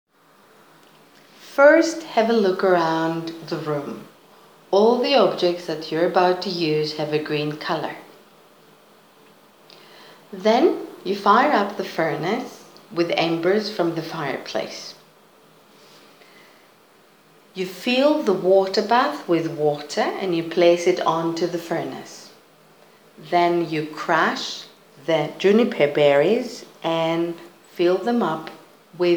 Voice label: voice english